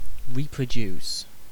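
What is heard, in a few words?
"reproduce", British English; pronunciations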